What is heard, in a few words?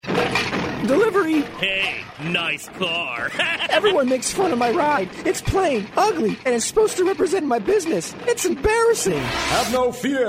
vehicle wraps radio commercial; Monarch Media Designs